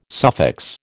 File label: "suffix", American